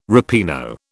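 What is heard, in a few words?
"ripieno", British English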